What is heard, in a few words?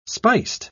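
"spiced", British English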